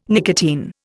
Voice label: "nicotine", Indian